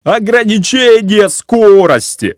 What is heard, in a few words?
warning speed, Navitel; MioMap C220